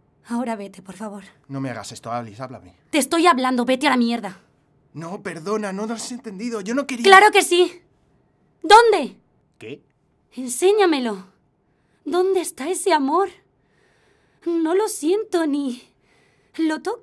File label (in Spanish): voz, actriz de doblaje